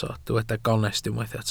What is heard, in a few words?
"gonest", Welsh